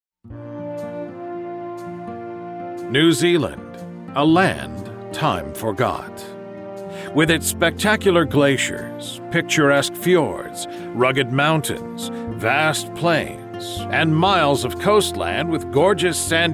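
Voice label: Documentary Narration, American Male Voice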